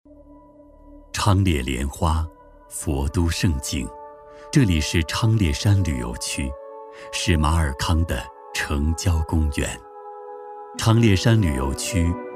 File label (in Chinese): 男 景区介绍 娓娓讲述感; 《昌列圣境》